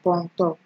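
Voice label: "ponto", Nigerian Pidgin, female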